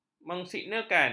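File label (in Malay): "mensignalkan", Malay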